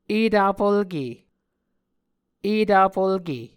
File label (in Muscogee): "etafulke", Muscogee